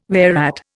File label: "whereat", Indian